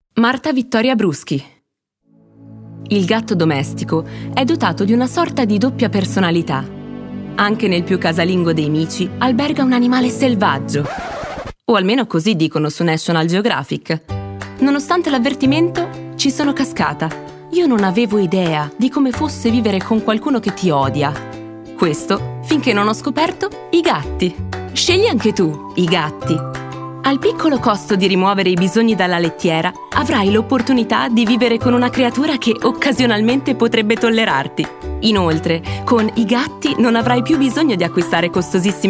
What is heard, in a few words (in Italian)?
DOCU NATURAL PUBBLICITA; I Gatti